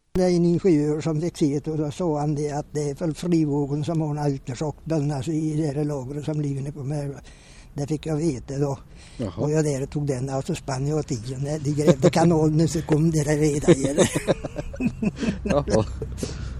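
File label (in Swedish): Nor Värmland, dialekter; Svenska sagor; Folkminnen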